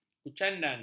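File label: "kucandan", Malay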